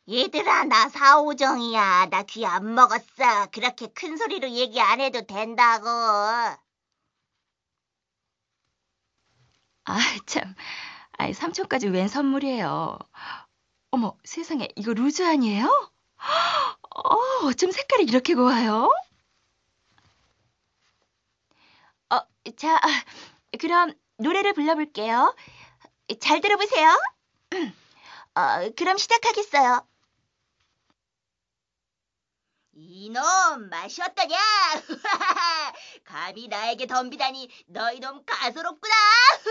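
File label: Korean, female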